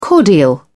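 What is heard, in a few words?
"cordial", British English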